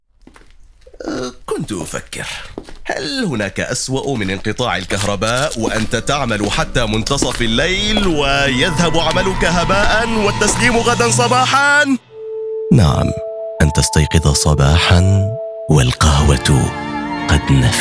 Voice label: Coffee Commercial Acting Funny Quirky Standard Arabic; Marouf